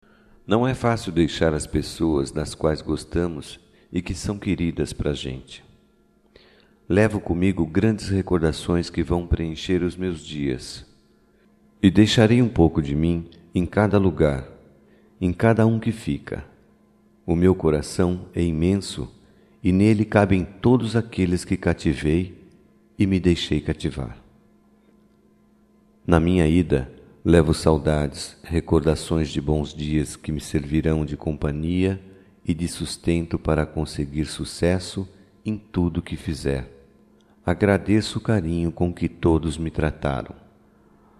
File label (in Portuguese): DESPEDIDA (DE QUEM VAI) - M